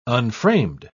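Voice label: "unframed", English, uk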